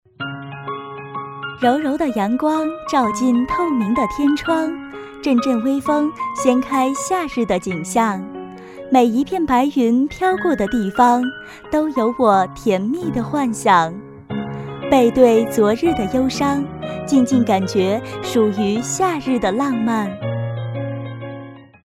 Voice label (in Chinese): 促销 柔光音乐 女 甜美